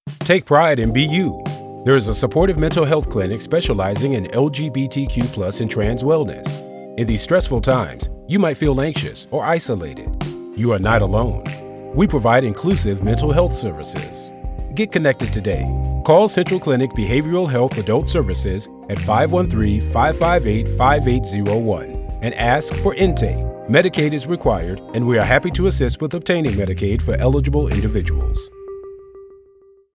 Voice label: male